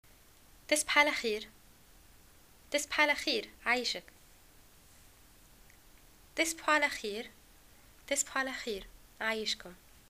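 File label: greeting good night, Tunisian Arabic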